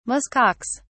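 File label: "musk ox", British English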